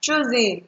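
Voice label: "choosing", Nigerian Pidgin, female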